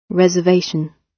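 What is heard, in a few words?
"reservation", British English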